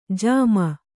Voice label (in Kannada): "ಝೊಮ್ಮು", Kannada